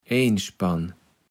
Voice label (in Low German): "Eenspann", kaevels platt